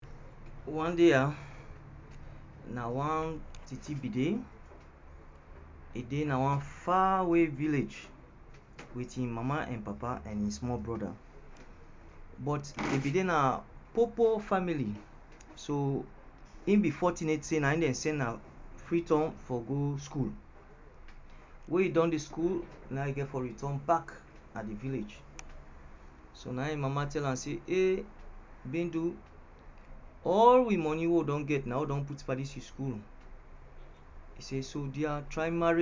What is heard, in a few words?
narration